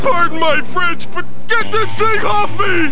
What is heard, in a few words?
french